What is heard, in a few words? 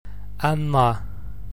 broad nn & nd (N), gaelic